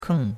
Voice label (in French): "keng4", chinois; Cours de Chinois